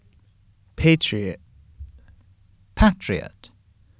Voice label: "PATRIOT", Pronunciation

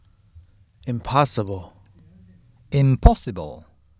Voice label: "IMPOSSIBLE", Pronunciation

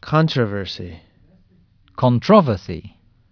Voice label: "CONTROVERSY", Pronunciation

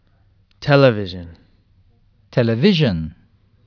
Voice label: "TELEVISION", Pronunciation

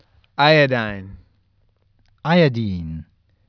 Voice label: "IODINE", USUK Pronunciation

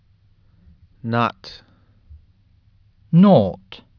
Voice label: "NOUGHT", Pronunciation

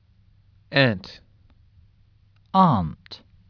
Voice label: "AUNT", USUK Pronunciation

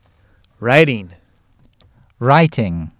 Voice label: "WRITING", Pronunciation

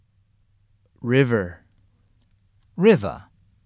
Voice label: "RIVER", USUK Pronunciation

